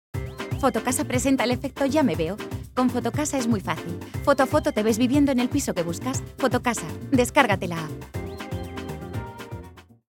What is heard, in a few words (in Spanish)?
Doblaje publi; Fotocas